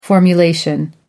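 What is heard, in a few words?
"formulation", English, British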